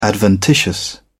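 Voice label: "adventitious", British English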